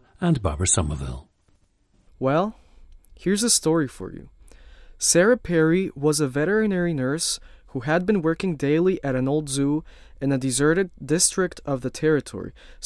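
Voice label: costa rica, International Dialects of English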